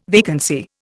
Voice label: "vacancy", Indian